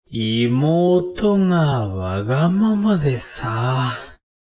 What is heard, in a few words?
man